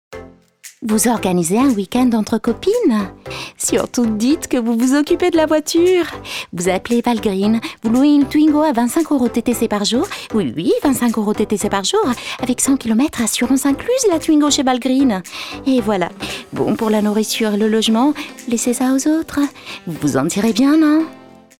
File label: radio advert, french, female